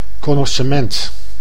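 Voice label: "connossement", Dutch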